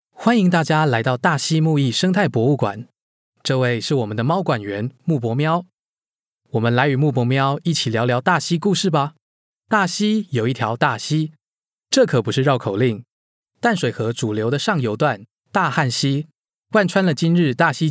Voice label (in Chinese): 國語 男; 大溪